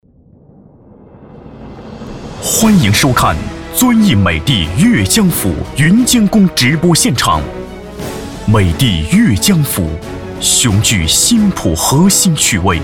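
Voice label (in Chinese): 广告 男 大气 房产大气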